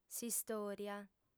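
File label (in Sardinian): "s'istòria", female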